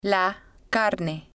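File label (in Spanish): "la carne", spanish, female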